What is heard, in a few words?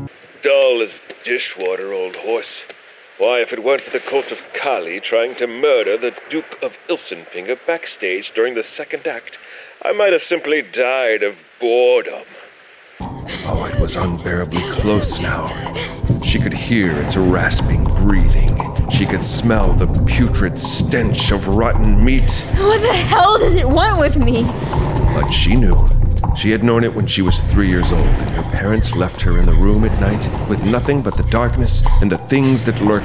AUDIO DRAMA